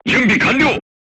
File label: voice message charged, crew tanks japanese